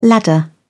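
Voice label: "ladder", British English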